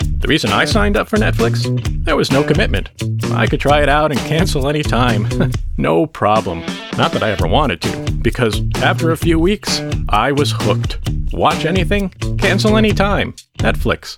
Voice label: Commercial man on the street